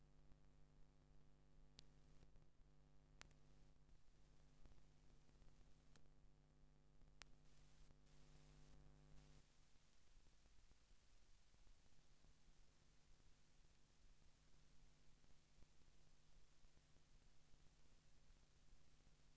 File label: bangla buying train ticket, Bengali; FSI